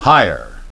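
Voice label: "hire", English pronunciation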